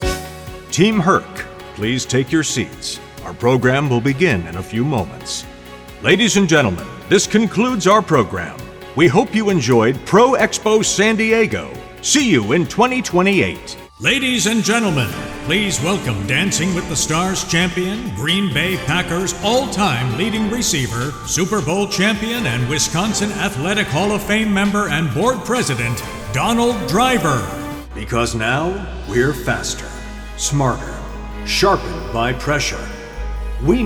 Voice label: VOG Announcer